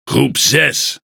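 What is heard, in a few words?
NL Speech Pilots Torpedo ID, Dutch; World Of Warships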